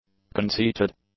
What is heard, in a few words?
"conceited", English; adjectives